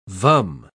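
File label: "vum", British English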